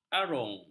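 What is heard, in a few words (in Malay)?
"arung", Malay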